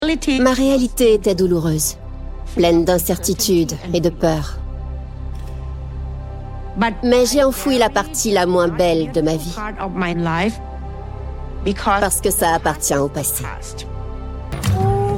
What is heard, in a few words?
voice over